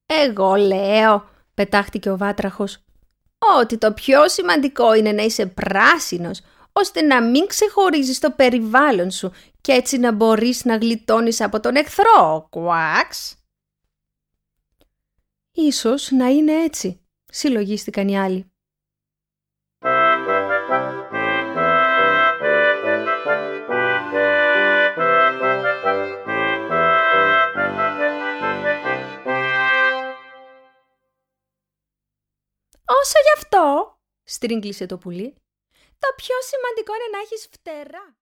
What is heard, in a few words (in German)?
griech; Allerwichtigste; hueber